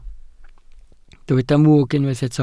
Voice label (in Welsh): "mwg", Welsh